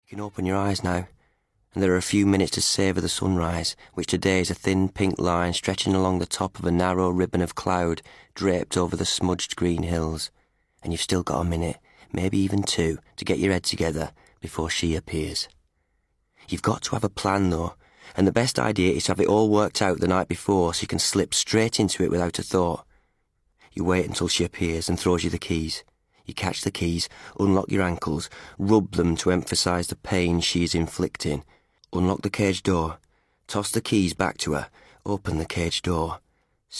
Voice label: Northern; Northern demo

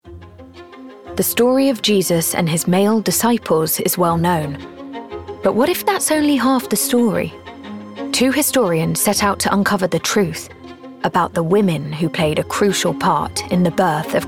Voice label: promo

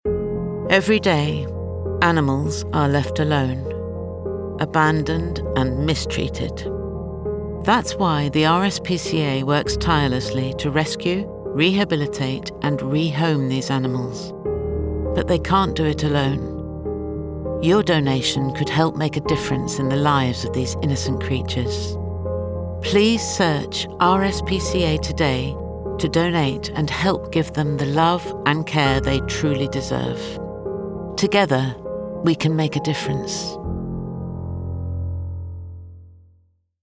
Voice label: Emotional, Sincere, Trustworthy; RSPCA

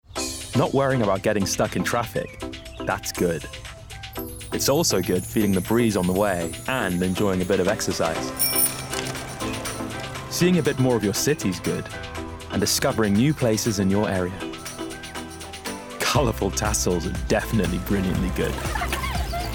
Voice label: Friendly, Authentic, Motivational; TfL

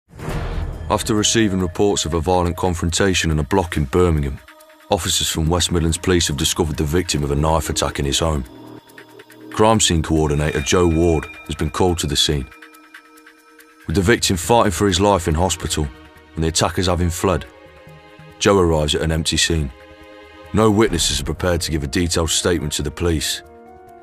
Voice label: NARRATIVE; CSI

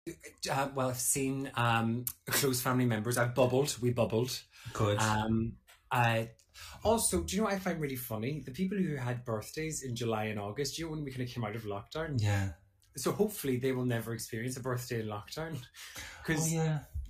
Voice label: NARRATIVE; Two Gays and Teapot

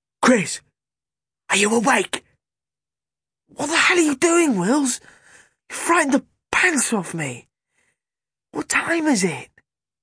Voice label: Audiobook Extract; Narrative; 'Hurricane Wills'

